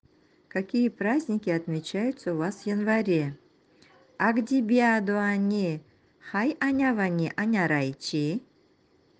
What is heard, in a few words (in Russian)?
январь, нанайский